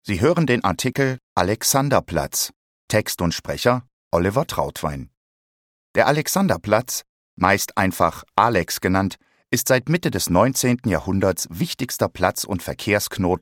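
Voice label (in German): audioguide, deutsch männer; sprecher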